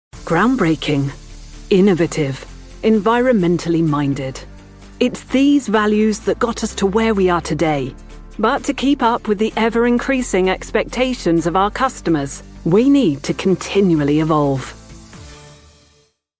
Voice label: narration corp branding